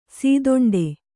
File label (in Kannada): "ಸೀದೊಂಡೆ", Kannada